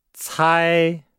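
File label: "cai", Chinese; Nihao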